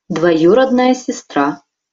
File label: pronunciation ru dvojurodnaja sestra